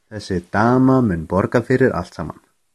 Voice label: pay icelandic